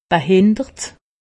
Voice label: "behindert", alsace; medecine

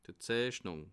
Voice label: "zeichnung", alsace

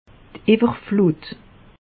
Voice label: "iwwerflut", alsace; meteo

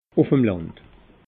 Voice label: "ufmland", alsace

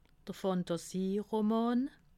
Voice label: "fantasyroman", alsace

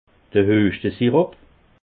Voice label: "hueschtesirop", alsace

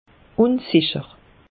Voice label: "unsicher", alsace; meteo

